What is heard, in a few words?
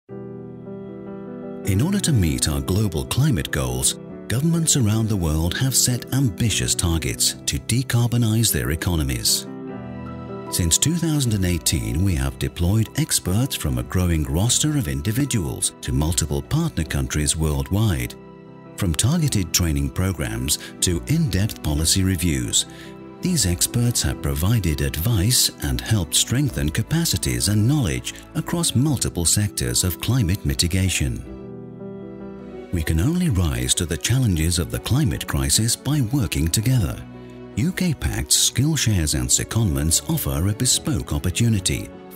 British Voiceover, video; COP26 Climate Change Conference UKPACT